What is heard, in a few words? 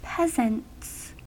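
"peasants" English, US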